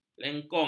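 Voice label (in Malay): "lengkung", Malay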